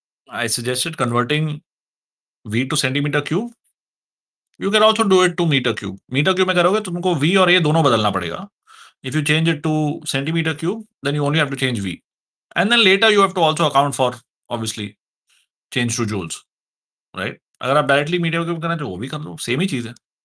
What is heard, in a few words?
voice message